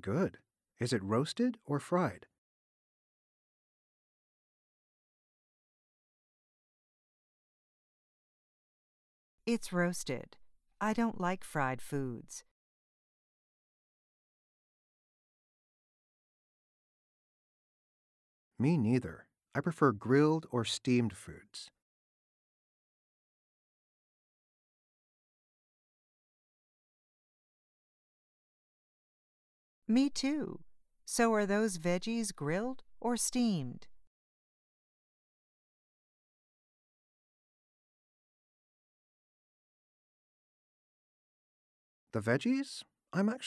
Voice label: Pronunciation Practice